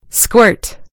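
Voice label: "squirt", American English; gcompris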